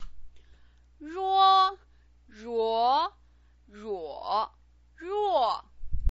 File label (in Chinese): "ruo", pinyin